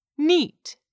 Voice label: "neat", English; 3000 words